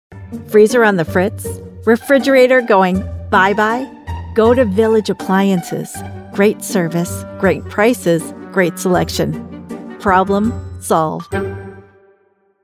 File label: Playful Retail Upbeat; Village Appliances